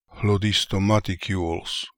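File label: "Hlodistomaticules", pronunciations; Fourth Way; Beelzebub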